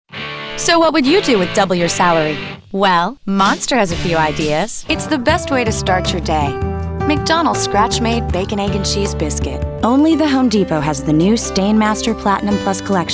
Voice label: Commercial, Female Voice Over, Authentic, Conversational, Mom, Executive; Female Voice Over Talent; Commercial Corporate